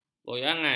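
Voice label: "goyangan", Malay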